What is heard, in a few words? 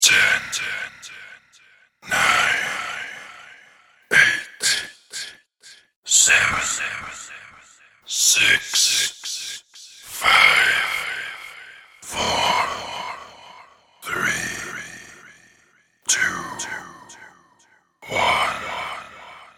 Countdown from ten to zero spoken in deep voice; with futuristic delay effect; Recorded Voice